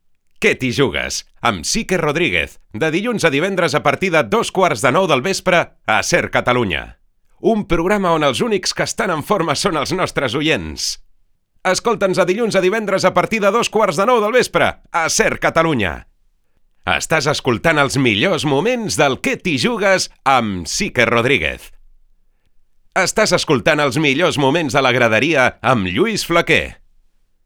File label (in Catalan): separadors JINGLES RADIO Catala VO